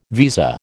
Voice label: "visa", American